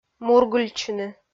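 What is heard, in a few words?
"мургыльтчыны", Komi, female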